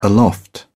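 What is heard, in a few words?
"aloft", British English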